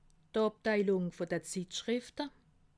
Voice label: "zitschrifte", alsace

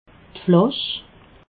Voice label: "flasch", alsace

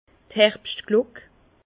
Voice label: "herbschtglock", Bas Rhin; vin; cloche d’ouverture des vendanges

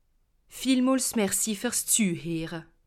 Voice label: "zuheere", alsace

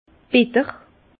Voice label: "bitter", Bas Rhin; vin; acerbe

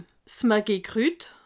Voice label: "maggi", alsace

